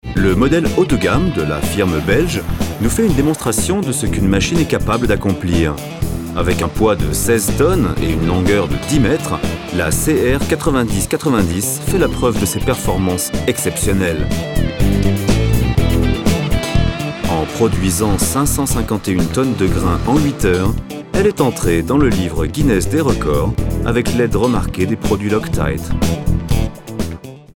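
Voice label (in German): French Kommentar Freundlich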